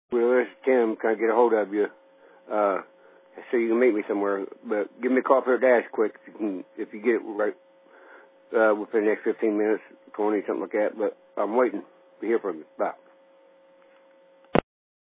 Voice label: Voicemail